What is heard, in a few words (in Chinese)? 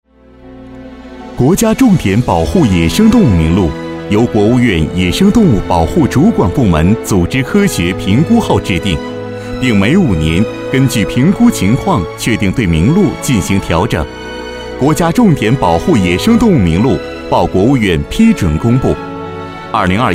男 宣传片 感人宣传